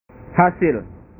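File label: "hasil", Indonesian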